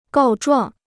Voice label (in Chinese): "告状", Chinese